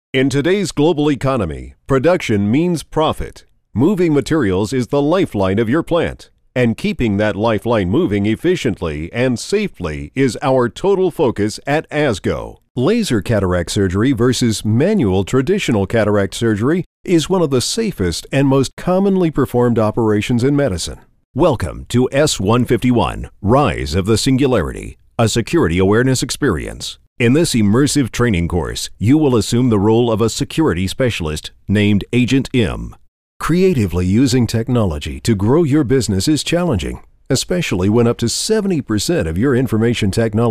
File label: Narration